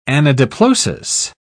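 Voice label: "anadiplosis", British English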